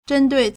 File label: "zhen1dui4", Chinese